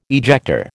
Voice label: "ejector", American